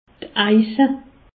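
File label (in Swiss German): "aisa", Alsace, Haut Rhin; furoncle; medecine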